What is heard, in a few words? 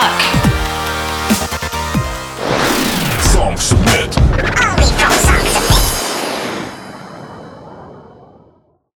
radio drop